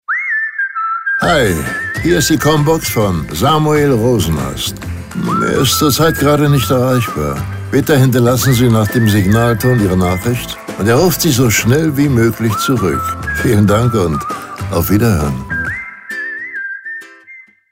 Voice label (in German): Ansage